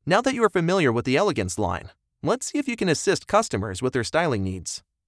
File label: eLearning Cosmetic Training